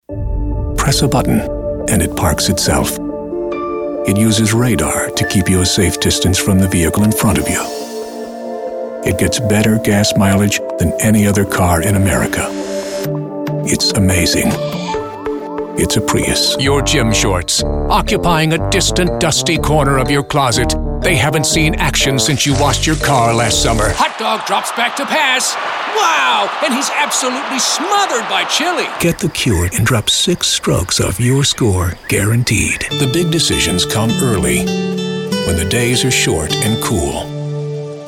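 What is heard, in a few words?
Commercial, real people male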